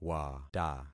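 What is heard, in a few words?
"wata", concatenated syllables; Affixing experiment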